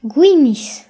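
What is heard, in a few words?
"wheat", Breton; gcompris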